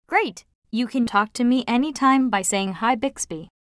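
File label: wake up succeed, American English; Bixby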